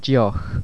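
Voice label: "deoch", Irish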